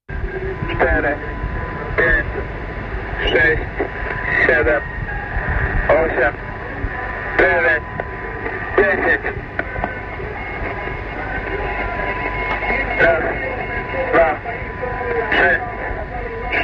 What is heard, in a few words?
counting in polish; irdial